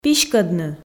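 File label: "писькӧдны", Komi, female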